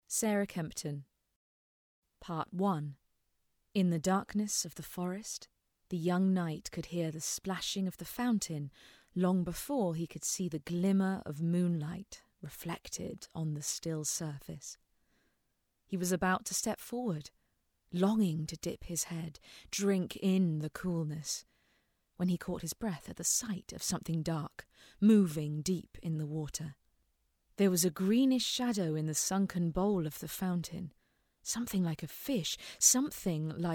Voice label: Narrative